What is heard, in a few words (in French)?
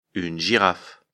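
"girafe", French; afrikalan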